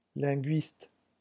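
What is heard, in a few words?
"linguiste", French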